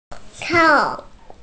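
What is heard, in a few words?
"shang4", Mandarin Chinese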